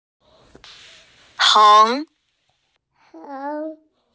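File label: "heng2", Mandarin Chinese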